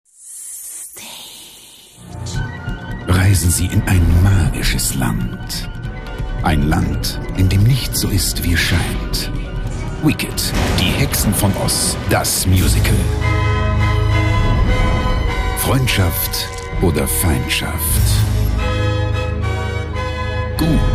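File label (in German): Werbung Dynamisch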